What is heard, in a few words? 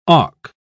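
"auk", British English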